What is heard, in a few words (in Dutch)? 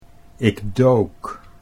"ik dook", Dutch